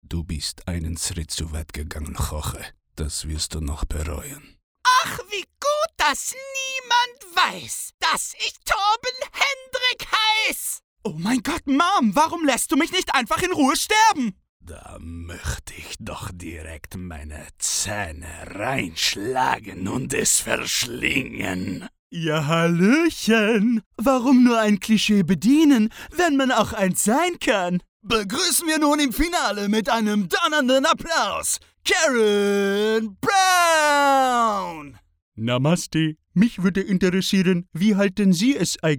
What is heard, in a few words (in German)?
Trickstimmen; sprecher